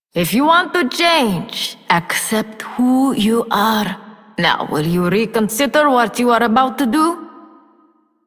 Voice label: Female Generic, Female, en; Dialog; Warframe; Solaris Venus

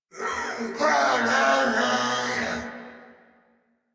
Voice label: Reload, Grineer, en; Warframe; Grineer Chem Nox

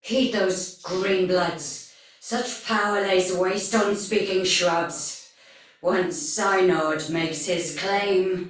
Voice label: Patrol Spoken Soldier Female; Sinecure

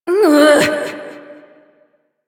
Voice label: Frustration

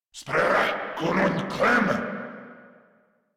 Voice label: Attack, Grineer Male

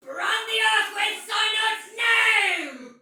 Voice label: Attack Heavy Soldier Female, Battle; Dialog; Barks; en; Sinecure

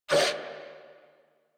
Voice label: Threatened, Female; Warframe; New War; Narmer Grineer

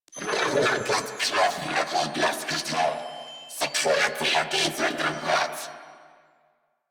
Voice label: Patrol, Female; en; Warframe; New War; Narmer Grineer

